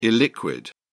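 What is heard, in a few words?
"illiquid", British English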